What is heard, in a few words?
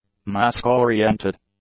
"mask-oriented", English; adjectives